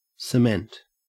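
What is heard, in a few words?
"cement", Australian English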